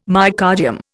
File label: "myocardium", Indian